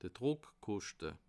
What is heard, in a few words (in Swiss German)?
"druckkoschte", alsace